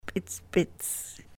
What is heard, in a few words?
"p'itsp'its", sahaptin